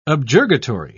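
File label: "objurgatory", British English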